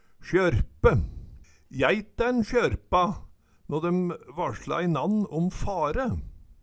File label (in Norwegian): sjørpe, Numedalsmål